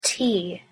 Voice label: "tea", Michif; thee-in-andere-talen

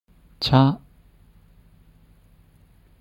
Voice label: "チャ", Aino; thee-in-andere-talen

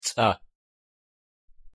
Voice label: "ца", Dungan; thee-in-andere-talen

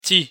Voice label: "ti" Lingala; thee-in-andere-talen

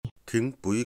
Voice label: "thingpui", Mizo; thee-in-andere-talen

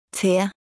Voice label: "te", Bokmål; thee-in-andere-talen

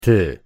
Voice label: "ty" Wymysorys; thee-in-andere-talen

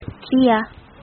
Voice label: "चिया", Doteli; thee-in-andere-talen

